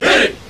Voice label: "hey", group of men

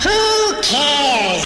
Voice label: cartoon; expletives

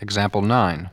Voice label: "example nine", male; Voice; numbers and letters

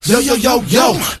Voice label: "yoyo yo yo", Voice; ooh ahh

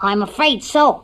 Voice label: i'm afraid so cartoon

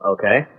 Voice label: "okay", expletives; butthead

